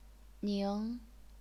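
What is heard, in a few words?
"ning", second tone, chinese pinyin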